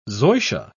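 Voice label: "zoysia", British English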